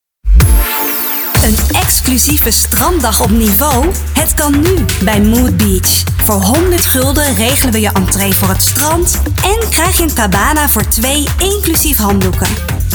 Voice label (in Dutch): mood stranddag spot